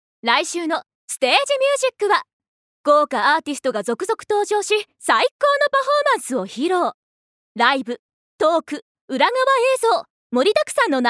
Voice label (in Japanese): ナレーション